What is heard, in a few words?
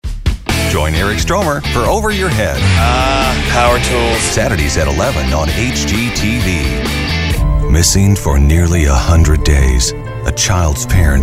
Promo